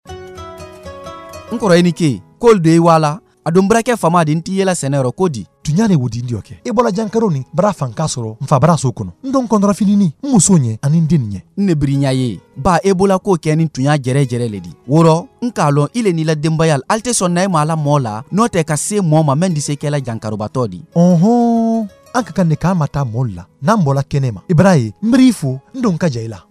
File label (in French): SPOT EBOLA 2 HOMMES MALINKE